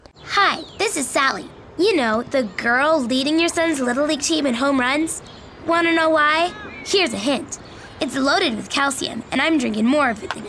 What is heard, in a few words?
Commercial, Female voices